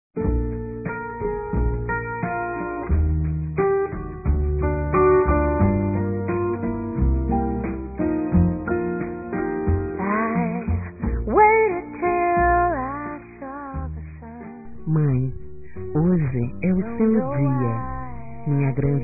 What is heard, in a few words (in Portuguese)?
Dia das Mães Geral Feminino; Telemensagens 2017